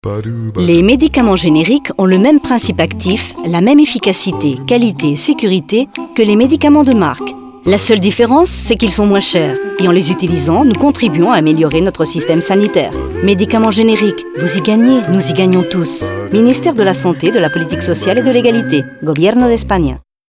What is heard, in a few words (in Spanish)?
frances; 20 segundos; medicamentos Genericos EFG